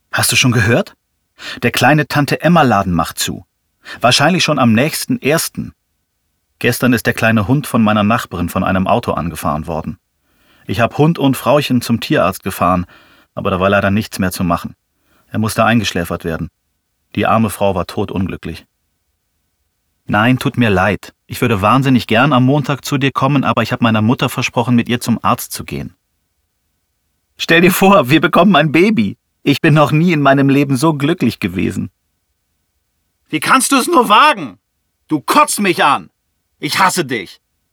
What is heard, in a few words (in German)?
Gespielte Saetze; LOFT VOICES